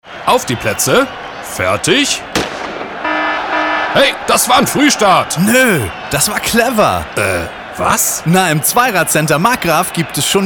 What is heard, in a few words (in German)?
Werbung Funk Regional